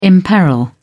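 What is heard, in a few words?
"imperil", British English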